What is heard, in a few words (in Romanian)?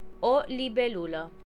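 dragonfly desc romanian; insects; cartoon; tuxpaint-stamps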